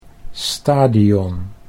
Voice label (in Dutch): "stadion", Dutch